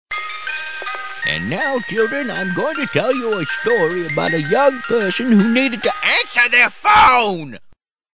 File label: grandpa phone